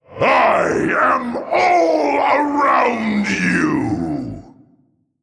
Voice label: Male Old God